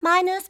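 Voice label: "minus", English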